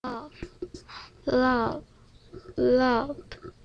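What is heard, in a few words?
"low", spoken word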